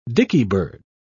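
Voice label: "dickybird", British English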